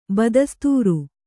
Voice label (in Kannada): "ಬದಸ್ತೂರ", Kannada